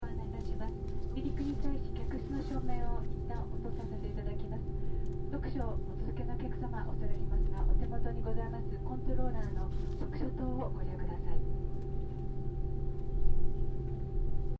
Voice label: AIRCRAFT BOEING 767-300ER INTERIOR ANNOUNCEMENT JAPANESE; Airplanes (Sound Effects:Vehicles)